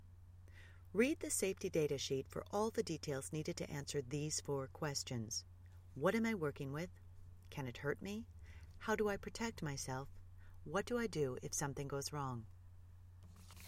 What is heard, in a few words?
Narration